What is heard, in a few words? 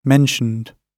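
"mentioned", British English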